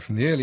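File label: fmDHi early, Standard Southern British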